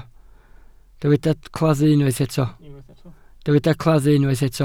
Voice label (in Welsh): "claddu", Welsh